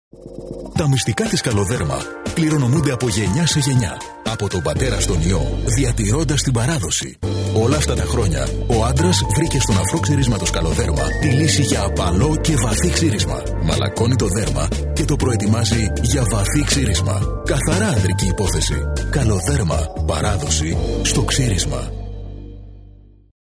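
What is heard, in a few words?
Greek, male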